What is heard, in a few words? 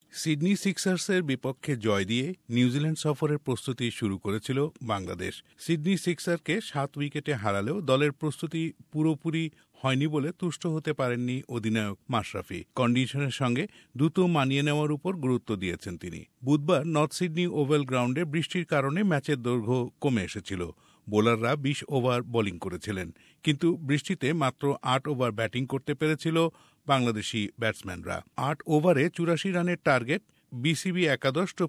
bangla; Bangladesh Cricket Board (BCB) XI played to match in Sydney; SBS Bangla